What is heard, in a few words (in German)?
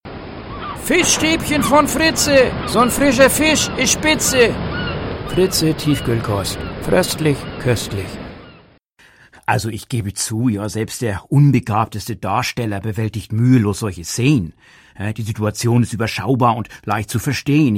alle dialekt norddeutsch hamburgisch